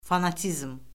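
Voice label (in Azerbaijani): "fanatizm", Azerbaijani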